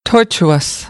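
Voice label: "tortuous", American English; AmericanBritish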